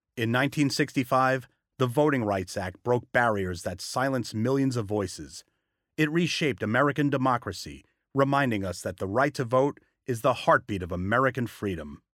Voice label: Video Narration History Authoritative